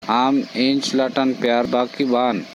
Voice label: Korku; Do you love me?